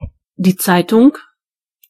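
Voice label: newspaper, German voices words; gcompris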